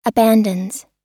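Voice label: "abandons", American English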